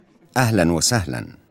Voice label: Hi In Arabic